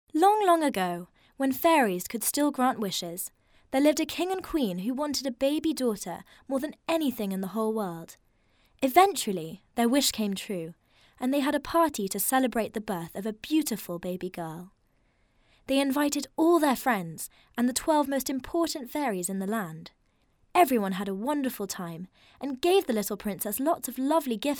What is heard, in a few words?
Fairy Story UK